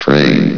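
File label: "train", English, male; cstrike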